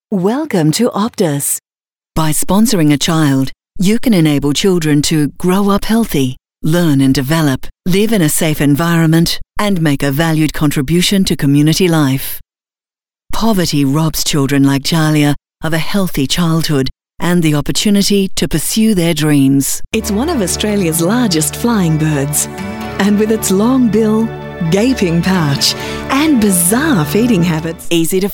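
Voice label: Australian, foreign accent voiceovers; foreign languages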